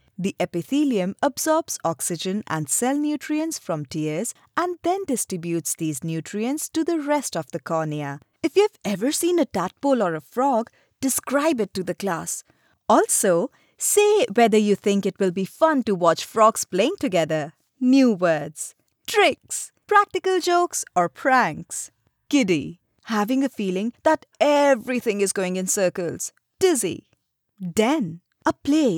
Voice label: eLearning English